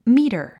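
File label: "meter", English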